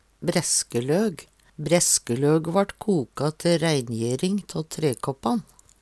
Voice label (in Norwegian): "breskeløg", Numedalsmål